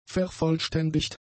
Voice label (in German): "vervollständigt", German; adjectives